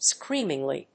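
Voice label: "screamingly", English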